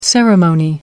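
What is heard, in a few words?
"ceremonial", British English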